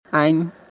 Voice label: "Aing", Mundari